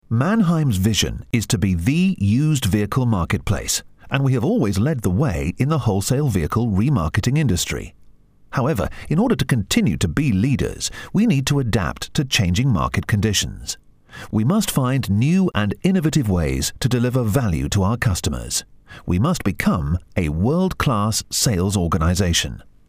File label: Dry Studio